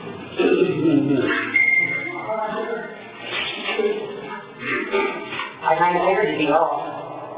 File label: Stairs Recorder Evil Sound Talking; Poasttown School